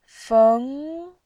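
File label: "feng", Mandarin Chinese, second tone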